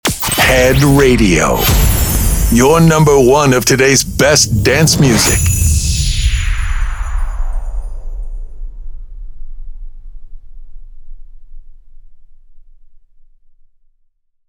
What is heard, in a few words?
Sweeper Xmas Short